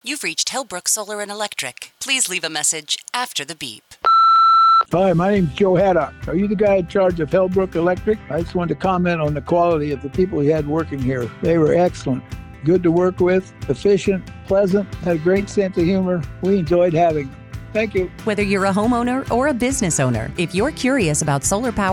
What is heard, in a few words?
voicemail; hellbrook solar and electric